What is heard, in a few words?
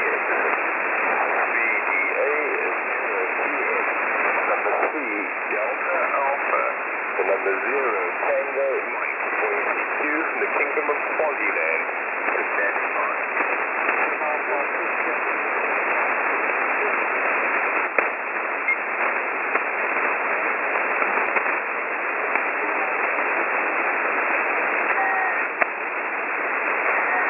CQ, 14193 kHz